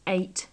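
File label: "ate", British English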